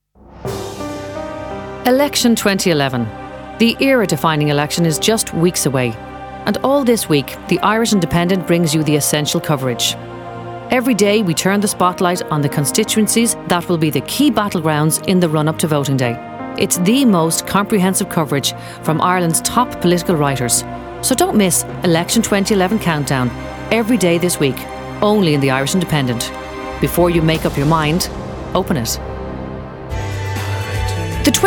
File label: Voice Over